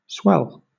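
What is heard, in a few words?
"swell", British English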